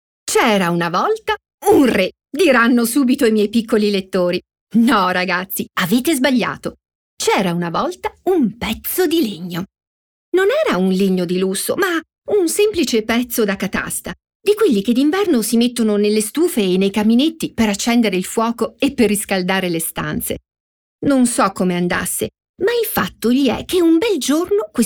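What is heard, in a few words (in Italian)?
Favola, voci femminili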